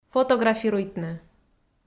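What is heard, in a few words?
"фотографируйтны", Komi, female; kpv audio dict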